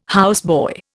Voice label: "houseboy", Indian

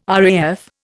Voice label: "RAF", Indian

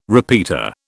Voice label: "repeater", British

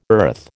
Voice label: "earth", American

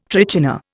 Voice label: "trichina", Indian

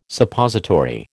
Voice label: "Suppository", American

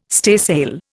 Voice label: "staysail", Indian

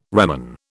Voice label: "reman", British

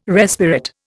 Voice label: "respirate", Indian

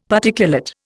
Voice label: "Particulate", Indian; Dictionary

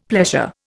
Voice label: "pleasure", Indian; Dictionary

